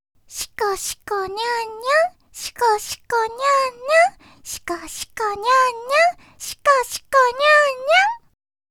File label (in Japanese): シコシコにゃんにゃん, ASMR